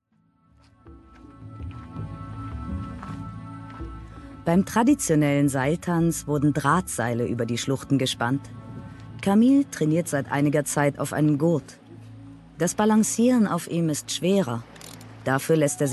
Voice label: german documentaries